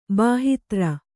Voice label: "ಬಾಹಿತ್ರ", Kannada